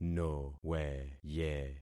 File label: "noweye", concat syllables; Affixing experiment